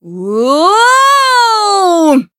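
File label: Voice Japanese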